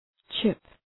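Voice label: "chip", English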